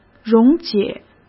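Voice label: "溶解", Mandarin Chinese; hsk; chinese-flashcards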